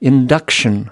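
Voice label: "induction", US English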